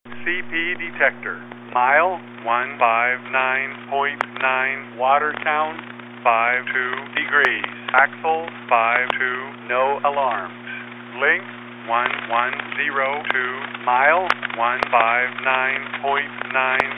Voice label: defect detector; CP Watertown Sub MP 159.9